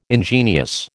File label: "ingenious", American